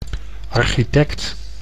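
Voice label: "architect", Dutch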